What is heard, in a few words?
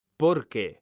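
"porque", Spanish, male; mekanta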